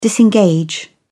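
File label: "disengage", British English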